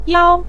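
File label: "yao" neutral tone, Mandarin Chinese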